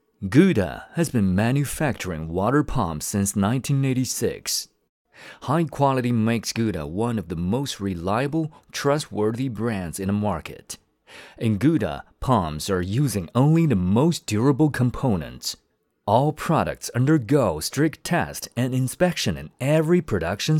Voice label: 英男 宣传片 磁性

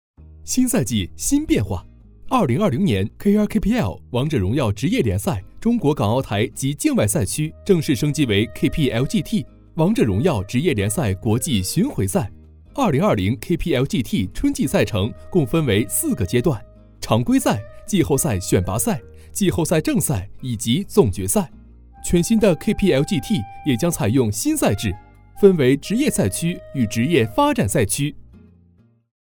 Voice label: 男; 飞碟说; 王者荣耀职业联赛

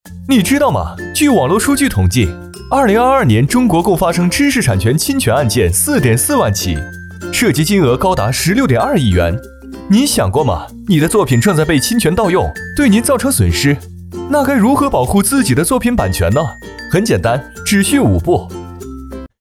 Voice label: 男 MG动画; 秒懂百科

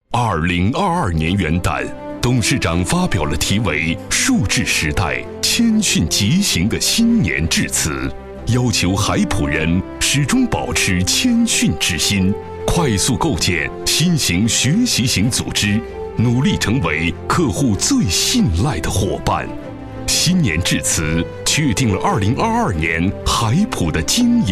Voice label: 男 专题 大事记; 2022年大事记

